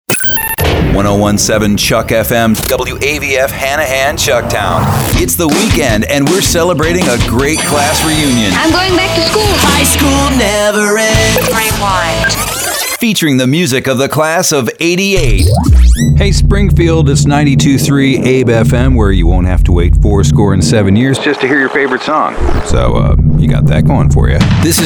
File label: radio male; radio imaging